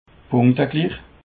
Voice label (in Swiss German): "punkteglich", Alsace